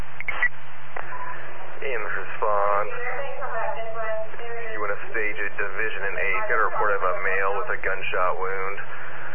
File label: Scanner Audio Gunshot Wound